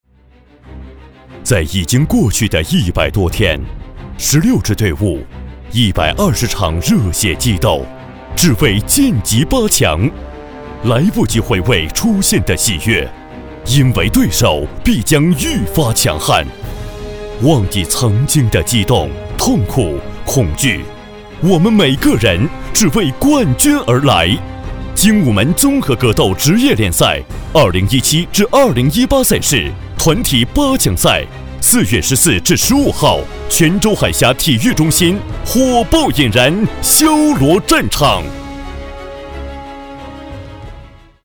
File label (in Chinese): 男 激情开场旁白 格斗; 精武门格斗